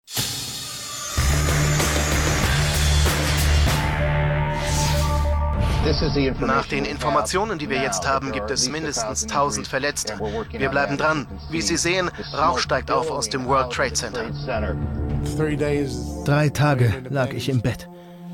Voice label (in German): doku; zdf history